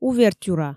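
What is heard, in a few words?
"увертюра" Komi, female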